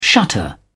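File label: "shutter", British English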